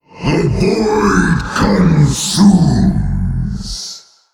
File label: Male Old God TRIGGER TWISTING NETHER